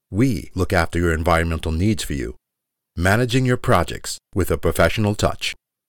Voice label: TV Ad